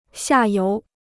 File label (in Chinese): "下游", Chinese